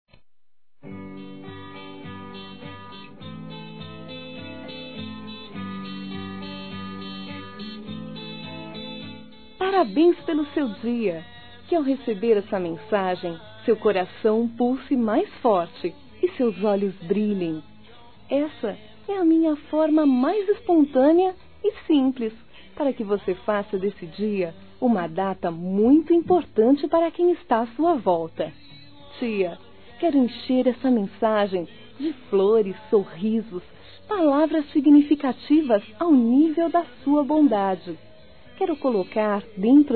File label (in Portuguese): Aniversário Tia Feminino